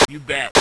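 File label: "yes", English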